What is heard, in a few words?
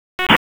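Sheriff's Office Dispatch; WSO DISP 1; wake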